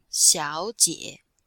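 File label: "xiao3 jie3", female, chinois; Cours-de-Chinois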